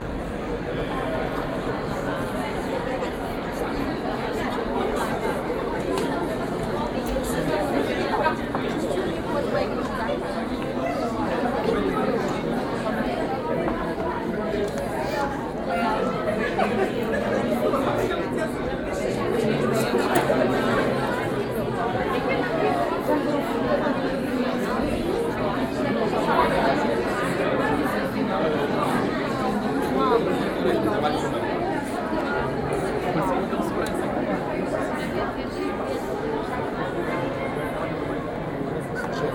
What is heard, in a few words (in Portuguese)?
Pessoas A Falar